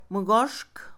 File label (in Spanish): cinco, Mixe, Mujer; Números; INALI